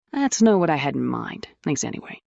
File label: fem